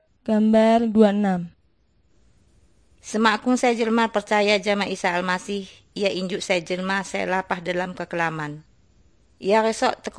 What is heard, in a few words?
lampung paminggir, drama; good news; bible